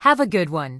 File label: Human Female Standard NPC Farewell